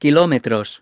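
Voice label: "kilometers", English; iOS 4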